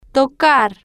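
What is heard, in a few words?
"tocar", slow, spanish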